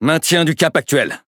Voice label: Speech Pilots Bomber Airborne, FR; World Of Warships

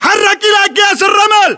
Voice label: order cover sandbags, battle chatter, english

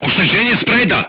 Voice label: voice message shot front, crew tanks serbian

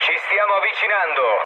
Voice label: near waypoint mood low, speech it; War Thunder

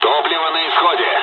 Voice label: dialogs russian; War Thunder

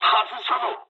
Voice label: bomb failure mood low, speech jp; War Thunder

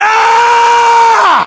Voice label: melee charge, voiceovers, english; ModernWarfare; localized english

